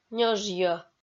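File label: "ньожйӧ", Komi, female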